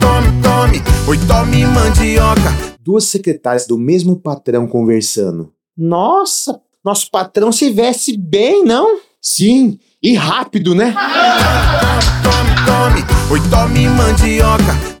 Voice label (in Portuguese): spot piada; rei da mandioca